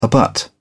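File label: "abut", English, British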